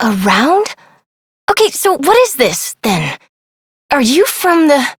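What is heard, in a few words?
Voice, English; New War; Operator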